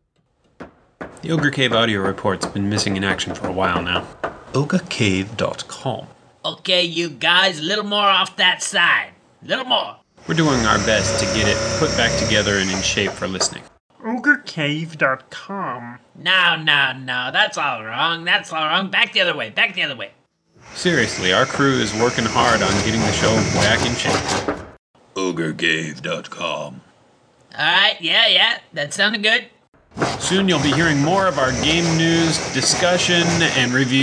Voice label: promo